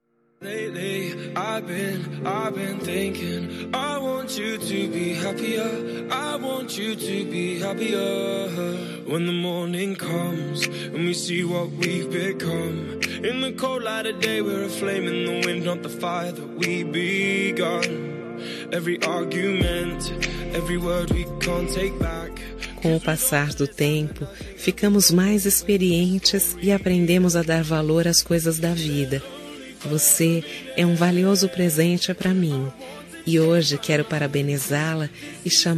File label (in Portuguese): Telemensagem de Aniversário de Irmã – Voz Feminina